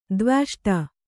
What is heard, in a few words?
"ದ್ವ್ಯಷ್ಟ", Kannada